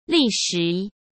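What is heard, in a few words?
"历时", Mandarin Chinese; chinese-flashcards; hsk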